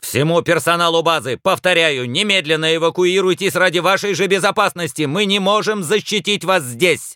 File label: announcer